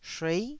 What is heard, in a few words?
"shuei9", taiwanese Syllables